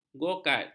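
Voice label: "go-kart", Malay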